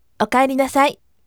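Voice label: "okaerinasai", firm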